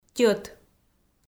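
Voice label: "тьӧт", Komi, female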